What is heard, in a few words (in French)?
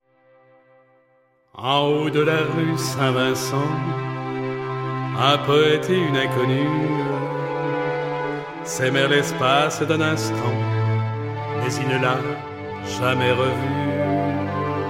MIX VOIX CHANTEE